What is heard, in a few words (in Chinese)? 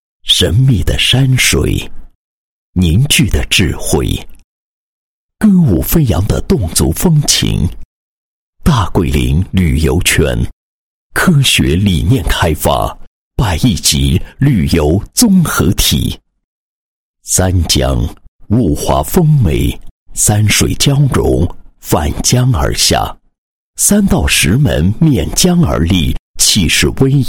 男 旁白; 侗族文化旅游开发